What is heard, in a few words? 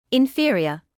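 "inferior", British English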